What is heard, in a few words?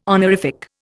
"honorific", Indian